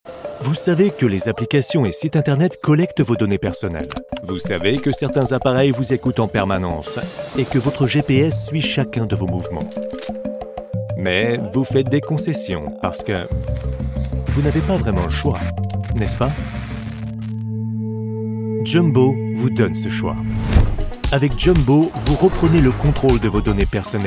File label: commercial; Jumbo; French voice-over